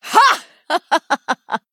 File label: Female Human Attack